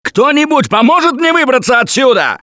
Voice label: call for rescue, russian; Gambler